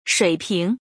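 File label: "shui3ping2", Chinese; 水平; 水準、レベル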